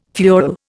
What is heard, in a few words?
"fuel", Indian